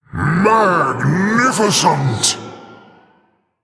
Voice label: Male Elemental Attack